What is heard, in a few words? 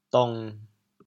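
"dong7", Teochew, chaoyang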